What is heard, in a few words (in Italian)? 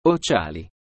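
"Occiali", Italian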